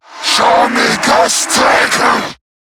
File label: Cover Me, en; Zariman Wraith